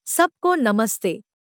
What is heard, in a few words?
Hindi Greetings everyone